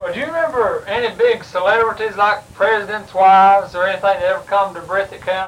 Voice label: Oral history with 89 year old male Whick Kentucky, american english dialect recordings